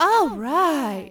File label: girl; norleans